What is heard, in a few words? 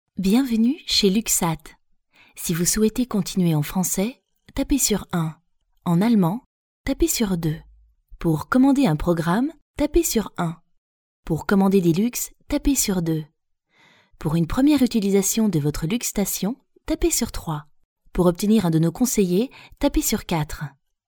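French, France, female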